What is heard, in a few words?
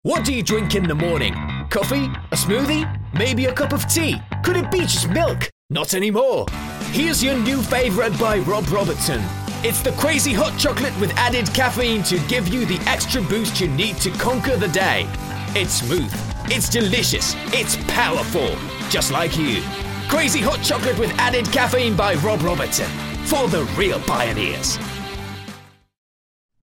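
British English, Commercial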